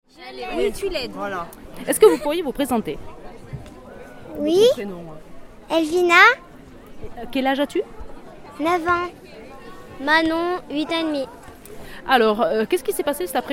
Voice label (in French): petites filles; Les Gens De Fontaine; forum sante place ecrins 2015